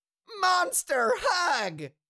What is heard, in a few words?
Cartoon little monster voice monster hug